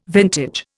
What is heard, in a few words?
"vintage", Indian